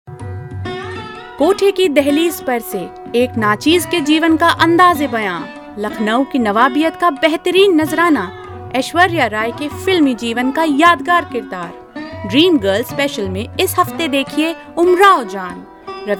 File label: promo hindi